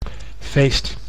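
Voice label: "feest", Dutch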